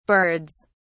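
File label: "birds", British English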